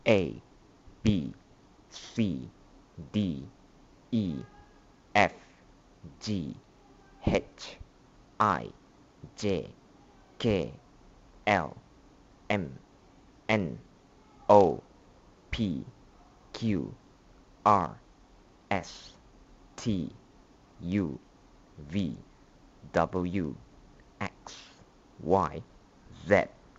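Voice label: alphabet Malay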